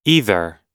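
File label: "either", English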